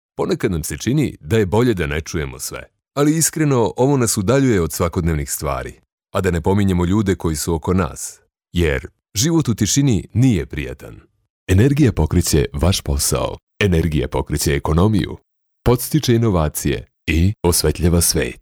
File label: male serbian voice over talent narrative